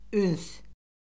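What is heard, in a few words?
"üns", Azerbaijani; Orfoqrafiya Lüğəti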